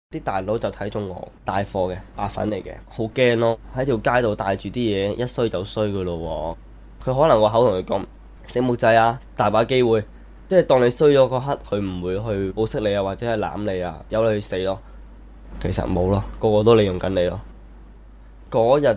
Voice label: cantonese; deal with devil